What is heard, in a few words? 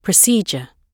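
"procedure", British English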